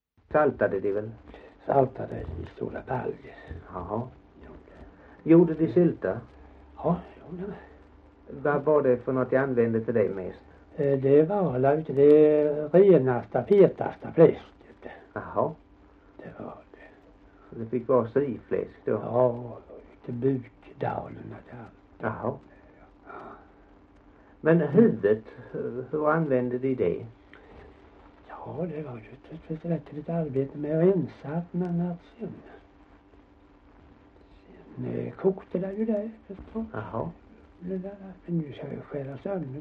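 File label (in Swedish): Gällared Halland, Svenska sagor dialekter; Folkminnen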